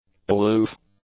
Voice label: "aloof", English; adjectives